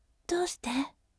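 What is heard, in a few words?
"doushite", gentle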